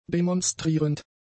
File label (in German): "demonstrierend", german; adjectives